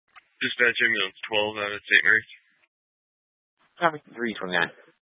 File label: Fire Dispatch